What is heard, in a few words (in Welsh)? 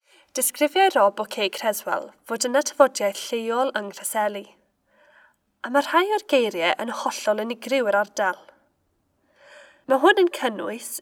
Cymraeg Local Dialect, pembrokeshire; Tafodieithoedd Lleol; afonydd Cleddau; Sir Benf